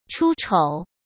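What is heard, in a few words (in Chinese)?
"出丑", Mandarin Chinese; hsk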